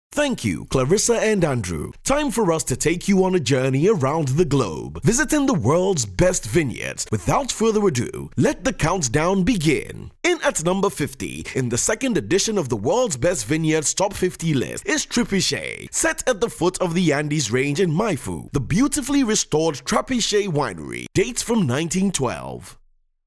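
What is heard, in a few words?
English African male Announcer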